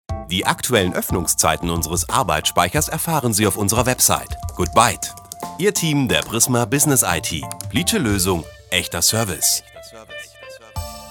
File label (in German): Tel Ansagen; Prisma3